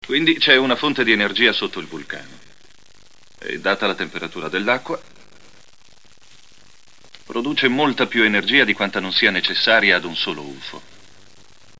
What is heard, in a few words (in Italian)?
voci italiane, doppiaggio; Foster; Riflessi